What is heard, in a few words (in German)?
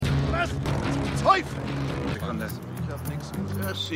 Biker Tankwart, Stimmproben; Republic Of Doyle